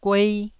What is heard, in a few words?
"guei1", taiwanese